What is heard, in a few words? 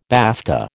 "BAFTA", American